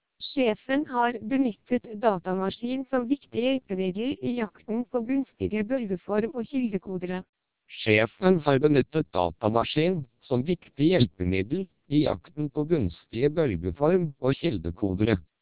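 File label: Norwegian speech, TWELP 700 bps vocoder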